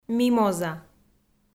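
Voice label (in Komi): "мимоза", Komi, female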